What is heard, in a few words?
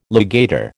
"legator", American